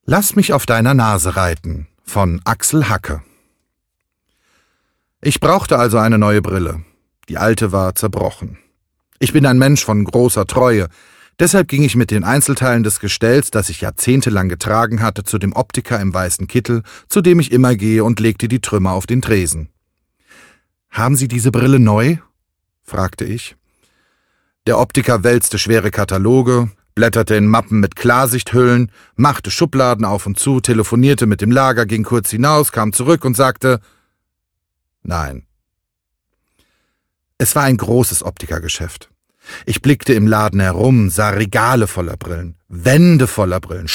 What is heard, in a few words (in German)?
Sprecherdemo Hörbuch Erzähler